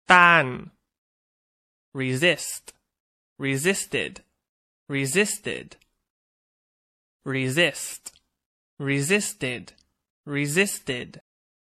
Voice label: "resist", English; Verb